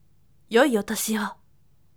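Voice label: voice, firm; yoi otoshiwo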